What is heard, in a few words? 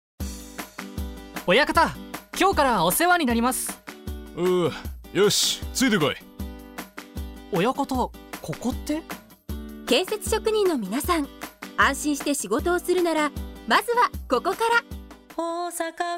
radio; osaka